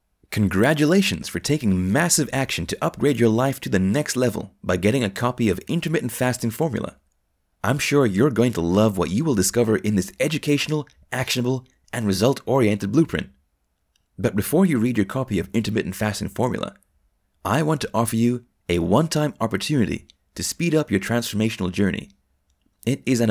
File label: Upsell Voiceover; intermittent fasting formula video upgrade